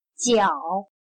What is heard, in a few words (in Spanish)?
"脚", Chino